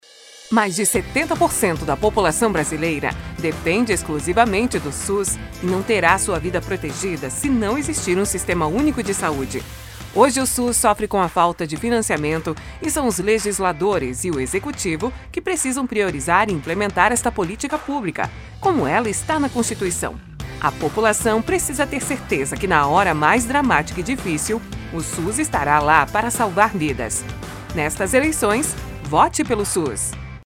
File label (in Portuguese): Spot